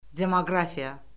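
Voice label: "demoqrafiya", Azerbaijani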